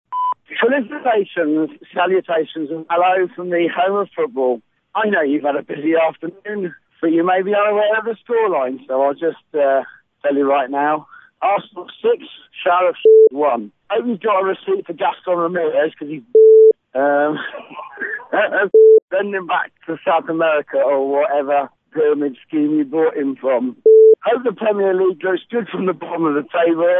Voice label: drunken voicemail